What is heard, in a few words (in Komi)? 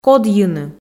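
"кодйыны", Komi, female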